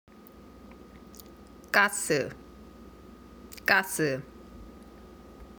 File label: "gas", Korean